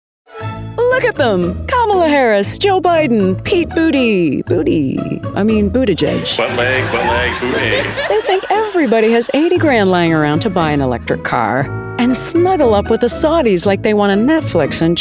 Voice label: Political English

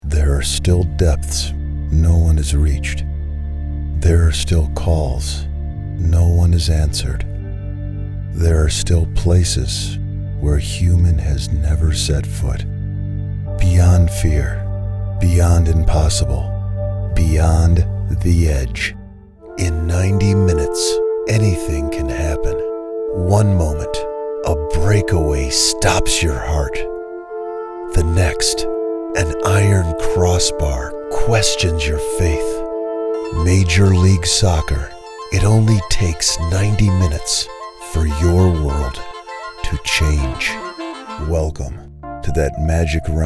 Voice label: Smooth

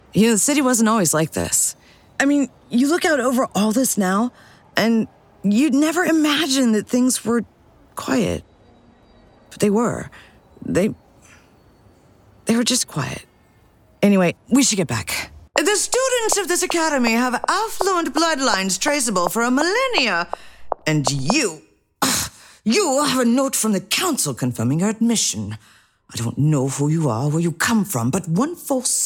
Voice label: Animation

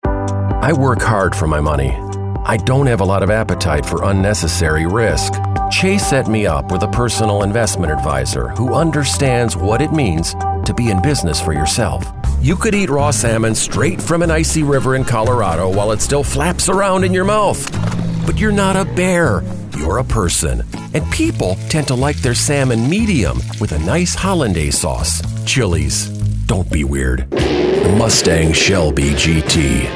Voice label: Commercial English

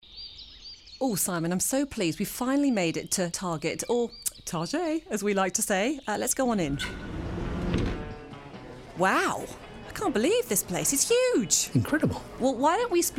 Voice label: Dialogue British Accent

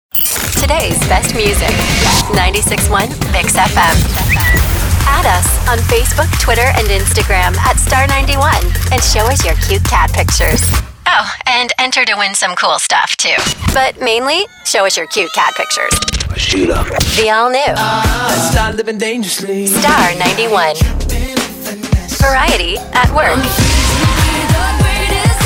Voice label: Radio Imaging

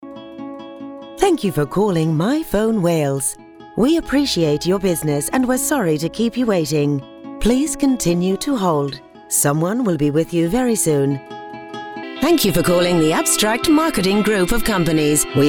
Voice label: Telephony IVR

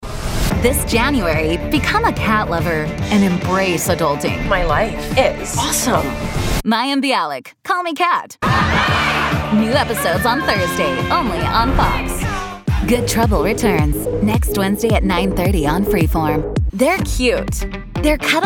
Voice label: Promo

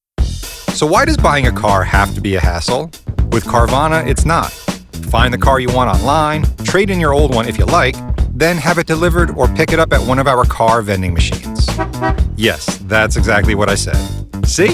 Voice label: Explainer

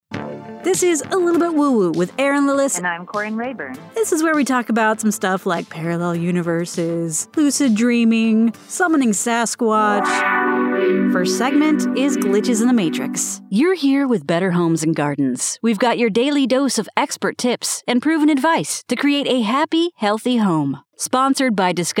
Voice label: Podcast NonBinary English

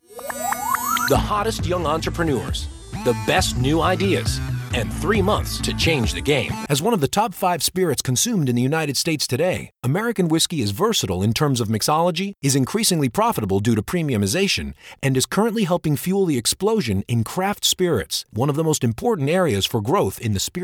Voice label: Corporate Narration

